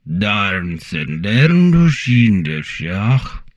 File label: humanoid portrait male; Stellaris; humanoids